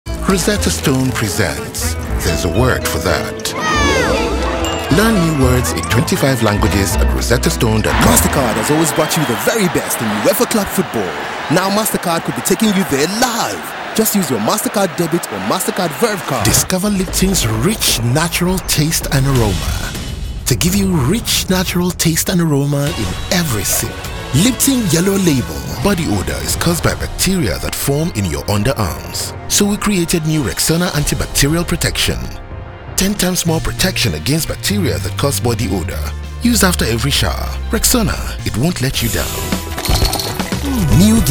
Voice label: Male African & International accent voiceover commercial